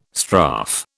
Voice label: "strafe", British